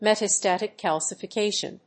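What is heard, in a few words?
"metastatic calcification", English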